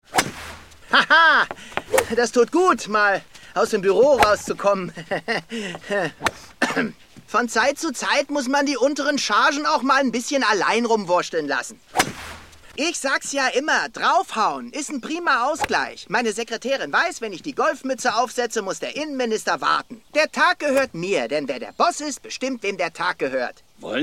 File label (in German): Synchron Dialog; Kollege